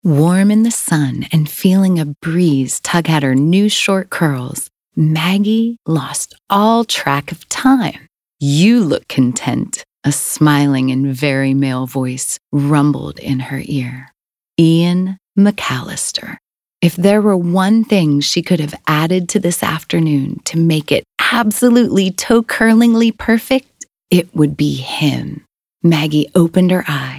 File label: Audiobook Romance 3rd Person Narrative